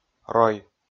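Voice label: "рой", Komi, male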